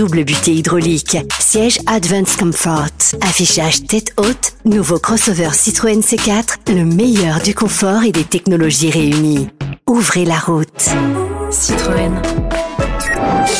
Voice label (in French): VO Voix F